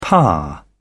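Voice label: "par", British English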